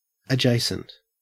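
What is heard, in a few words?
"adjacent", Australian English; pronunciations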